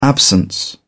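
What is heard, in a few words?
"absence", English, British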